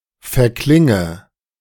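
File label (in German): "verklinge", German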